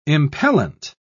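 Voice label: "impellent", British English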